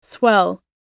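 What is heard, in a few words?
"swell", English; oxford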